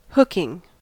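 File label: "hooking", US English; pronunciations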